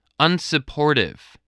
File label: "unsupportive", English spelling voice